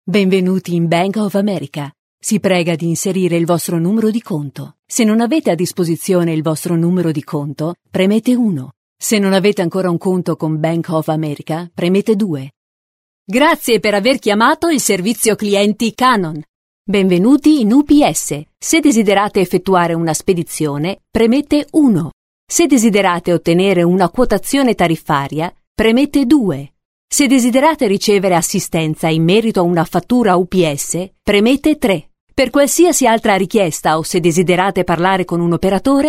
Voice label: IT Female; European Voices

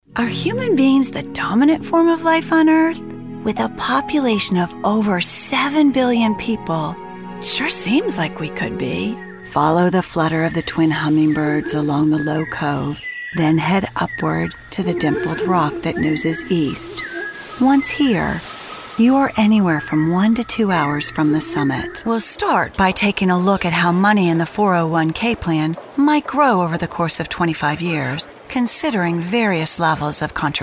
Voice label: Narration; VO Demos

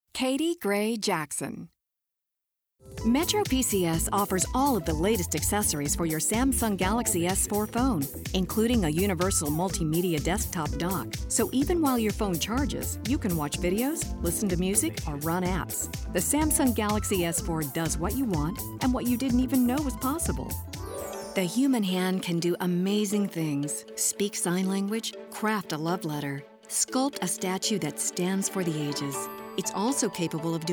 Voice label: Narration VO

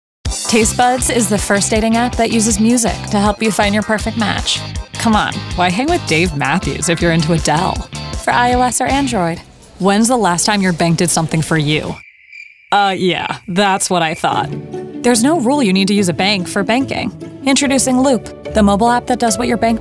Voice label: Commercial

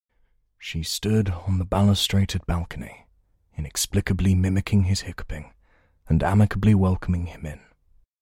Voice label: UK Native Older

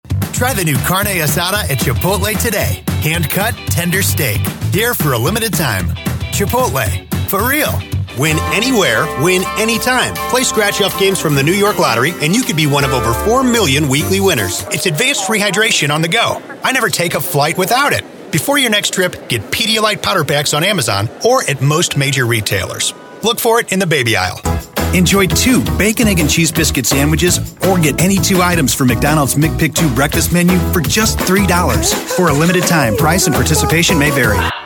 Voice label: Commercial

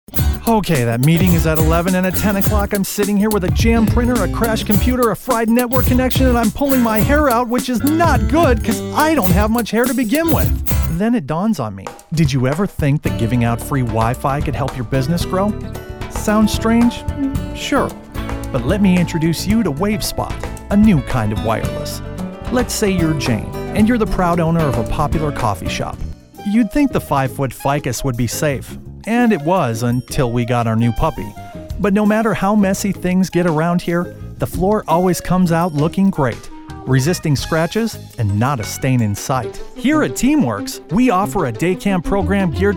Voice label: Conversational Soft Sell Commercial

